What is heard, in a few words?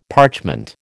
"parchment", American